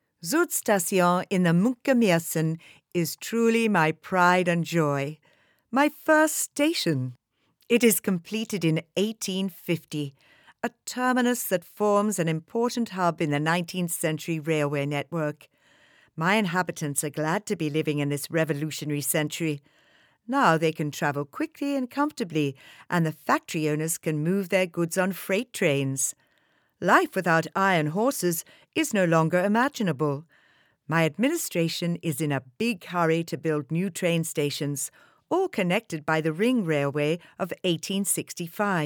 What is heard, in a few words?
audioguide; Ghent